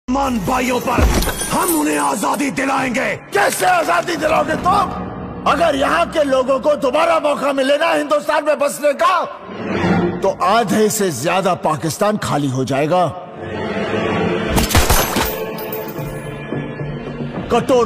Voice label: Dialogue Ringtone; Gadar 2; Sunny Deol